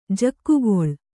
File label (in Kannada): "ಜಕ್ಕುಗೊಳ್", Kannada